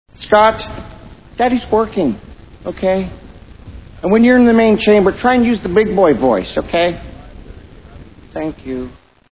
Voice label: big boy voice